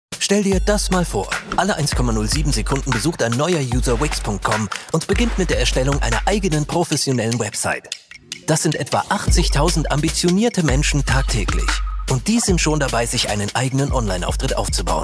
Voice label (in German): erklaervideo; wix